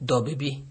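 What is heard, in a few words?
"dollbaby", Nigerian Pidgin, male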